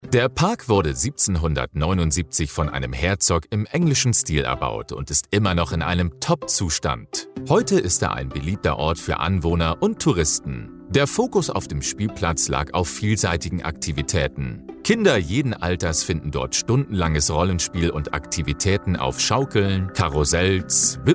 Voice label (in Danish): Tysk, speakere